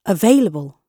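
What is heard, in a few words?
"available", British English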